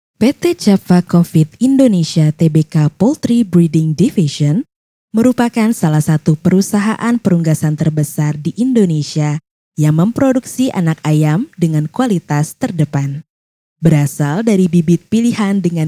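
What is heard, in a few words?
INDONESIAN FORMAL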